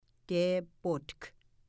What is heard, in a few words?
"tepots", Mi'kmaw